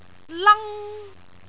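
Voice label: "lang1", Cantonese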